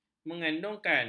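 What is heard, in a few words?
"mengandungkan", Malay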